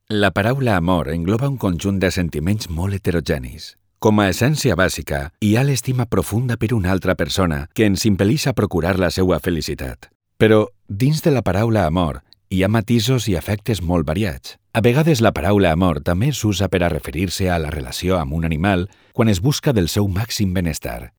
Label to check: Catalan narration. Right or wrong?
Right